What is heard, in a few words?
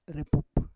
"repup", Roon